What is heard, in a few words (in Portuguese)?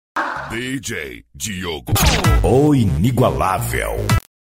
vinheta